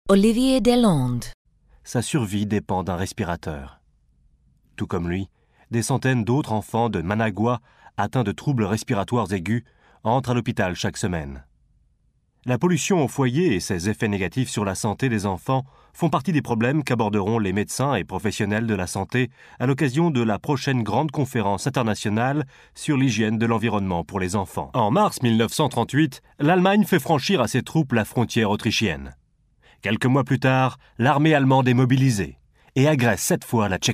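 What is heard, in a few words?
french narration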